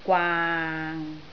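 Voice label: "gwaang6", Cantonese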